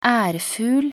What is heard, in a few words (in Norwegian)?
"ærfugl", nb_NO